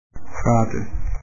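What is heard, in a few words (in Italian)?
"fratello", Italian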